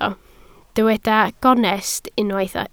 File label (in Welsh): "gonest" Welsh